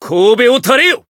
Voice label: finish, jp; claude